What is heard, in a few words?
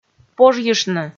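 "пожйыштны", Komi, female; kpv audio dict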